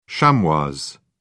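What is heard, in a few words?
"chamois", British English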